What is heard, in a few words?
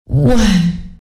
end 1 sec, timeleft en unreal female; cstrike